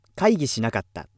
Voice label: male